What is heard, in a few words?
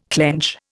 "clench", Indian